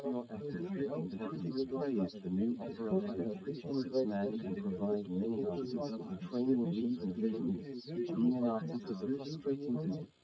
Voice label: male srec 130ms 20cm sim

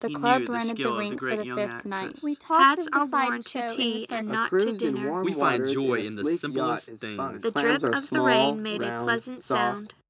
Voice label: anechoic src1 80 src2 60 16kHz; SiSEC08; SiSEC headgeometry

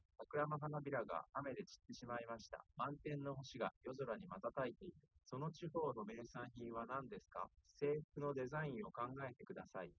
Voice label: male liverec; SiSEC10; underdetermined

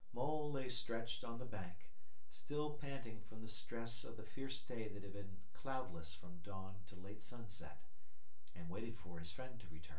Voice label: male synth conv 5cm sim; SASSEC07

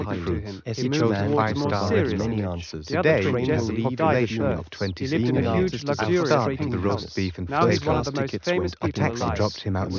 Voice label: male mix; SiSEC10; underdetermined

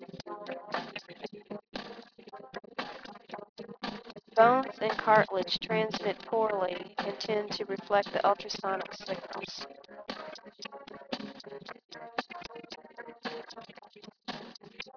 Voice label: mix mus sp F

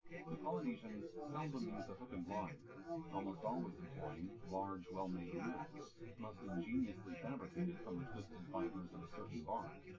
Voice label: male synth conv

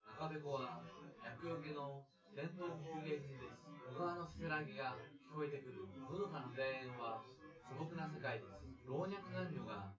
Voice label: male, synth conv, 250ms, 1m; SiSEC10; underdetermined

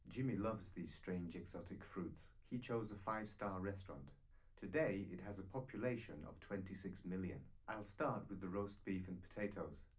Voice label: male4 liverec 250ms 5cm; SiSEC11; underdetermined

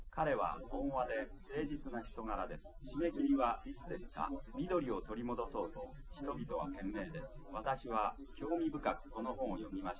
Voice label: male liverec 250ms 5cm; SiSEC08; SiSEC underdetermined

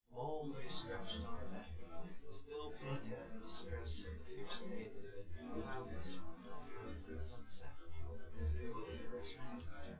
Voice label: male synth conv 5cm; SASSEC07

